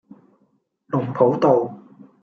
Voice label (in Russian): Голоса, Гонконгский